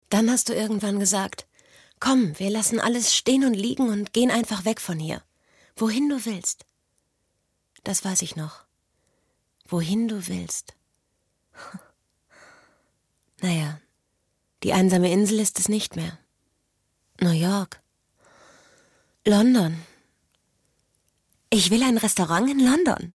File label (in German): synchron